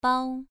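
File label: "bao" Mandarin, second tone, pronunciation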